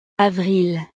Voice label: "avril", francés; los meses